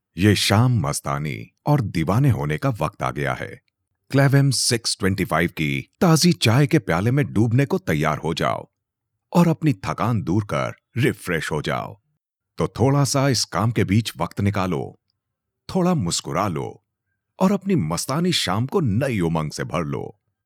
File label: hindi